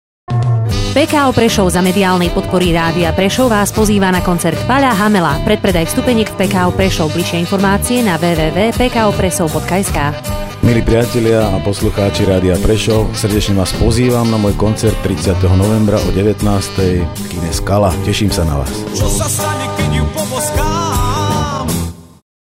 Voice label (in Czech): reklamni spot; koncertpalohammel